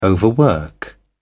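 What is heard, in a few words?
"overwork", English, British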